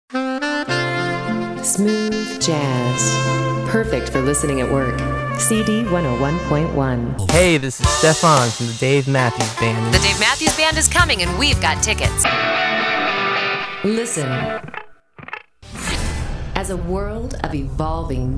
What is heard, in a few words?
imaging